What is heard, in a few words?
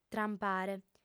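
"trampare", Sardinian, female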